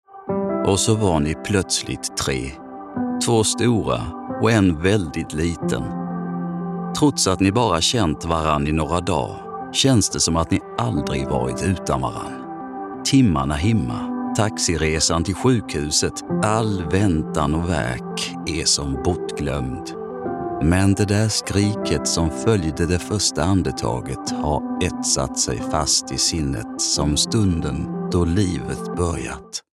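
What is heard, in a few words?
Swedish, Blekinge dialect, uplifting; Blekingska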